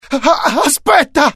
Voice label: fear, IT; mohaa; dialogue

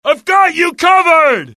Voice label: suppress, dialogue generic US; mohaa

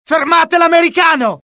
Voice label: alert, dialogue IT; mohaa; attack

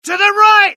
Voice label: alert, UK; mohaa; dialogue

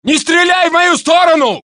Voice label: Russian; mohaa

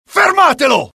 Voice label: alert, dialogue IT; mohaa; attack

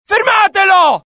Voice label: alert, dialogue IT; mohaa; attack

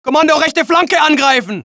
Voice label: German; mohaa

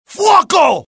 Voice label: alert, dialogue IT; mohaa; attack

